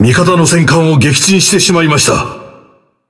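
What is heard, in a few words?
Speech Destroy Ally Battleship, Japanese; World Of Warships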